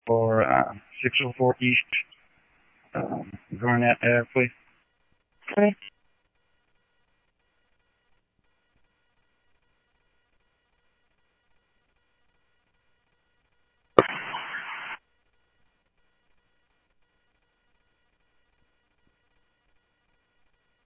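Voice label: Simulcast police department, scanner audio trunk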